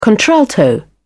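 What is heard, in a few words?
"contralto", British English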